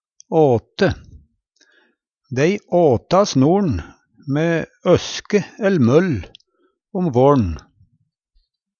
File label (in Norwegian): "åte", Numedalsmål; Vêr og føre